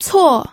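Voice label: "cuo", pinyin, fourth tone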